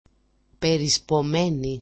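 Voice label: "perispomeni", Greek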